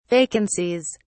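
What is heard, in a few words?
"vacancies", British English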